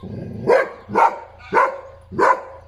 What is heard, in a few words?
upset; kona; fertig classic games